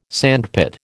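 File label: "sandpit", American English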